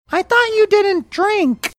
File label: saying i thought you didn't drink high pitched